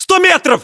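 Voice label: threat distance 100, battlechatter, Russian; localized english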